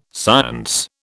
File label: "science", British